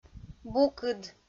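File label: "букыд", Komi, female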